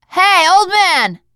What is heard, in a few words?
name, teen girl; bill